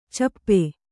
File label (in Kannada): "ಛಪ್ಪೆ", Kannada